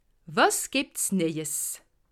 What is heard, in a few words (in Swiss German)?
"neies", alsace